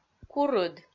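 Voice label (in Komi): "курыд", Komi-Zyrian, female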